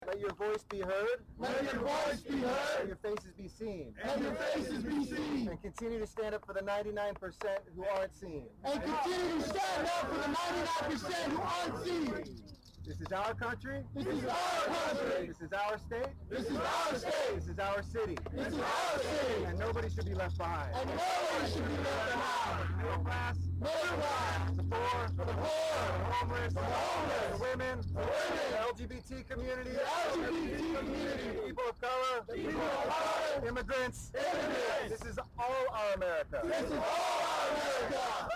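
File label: council announcement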